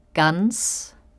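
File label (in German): "Gans", German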